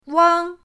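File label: "wang", Mandarin pinyin, first tone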